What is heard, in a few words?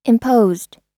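"imposed", American English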